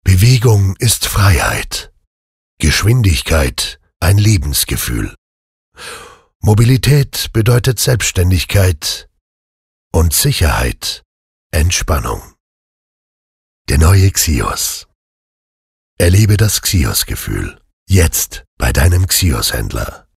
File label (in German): Sanft; Xios